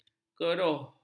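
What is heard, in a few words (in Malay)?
"keruh", Malay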